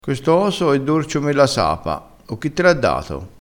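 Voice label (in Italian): "dorce", dialettale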